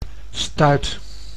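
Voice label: "stuit", Dutch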